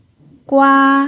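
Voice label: "gua", first tone, Mandarin Chinese pinyin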